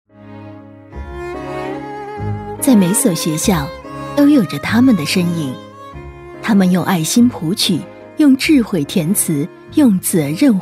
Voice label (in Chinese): 女 学校宣传 温情专题